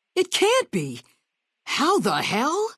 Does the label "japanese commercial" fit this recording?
No